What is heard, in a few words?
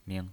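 "ming", pinyin voice, Mandarin Chinese, neutral tone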